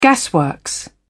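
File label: "gasworks", British English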